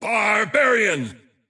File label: Barbarian intense